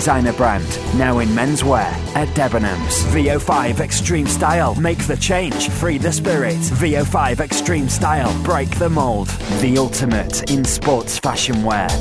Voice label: cool and direct